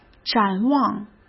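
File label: "展望", Mandarin Chinese; hsk; chinese-flashcards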